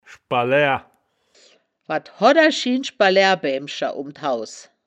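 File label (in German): "schbalaeaer", wittlicher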